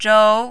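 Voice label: "zhou2", mandarin, rising tone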